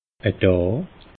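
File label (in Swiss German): "daa", Bas Rhin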